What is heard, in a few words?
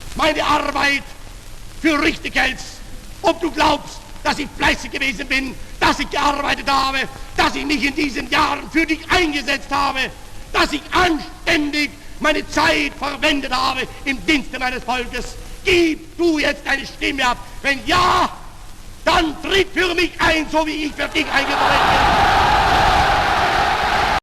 Hitler Speech, German